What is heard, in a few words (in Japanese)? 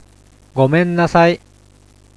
"go men nasai" Japanese, I'm sorry.; ごめんなさい。; Let's Speak Foreign Language